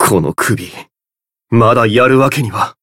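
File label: Voice win barely, Japanese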